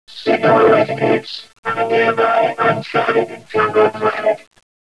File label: robot voice; valve; cinima